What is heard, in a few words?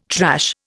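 "trash", Indian English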